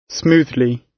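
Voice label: "smoothly", British English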